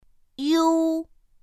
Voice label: "iu", pinyin; pinyin2; pinyinji

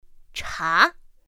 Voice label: "chá", pinyin; pinyin2; pinyinji

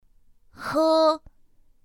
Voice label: "h", pinyin; pinyin2; pinyinji

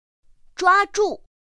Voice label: "zhuāzhù", pinyin; pinyin2; pinyinji

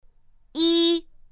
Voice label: "i", pinyin; pinyin2; pinyinji

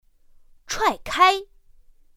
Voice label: "chuàikāi", pinyin; pinyin2; pinyinji

